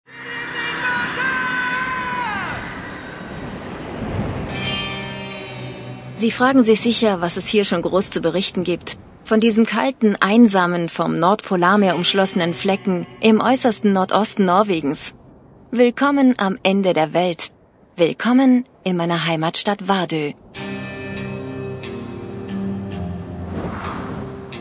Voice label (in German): Kommentar Neutral